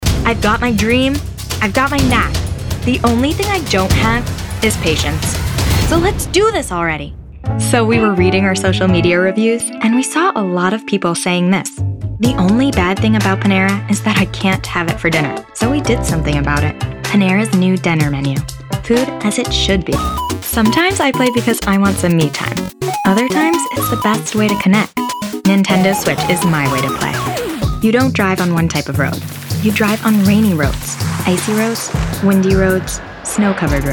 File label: commercial